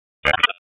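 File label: Police District Tactical, P25; wake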